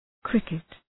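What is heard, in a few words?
"cricket", English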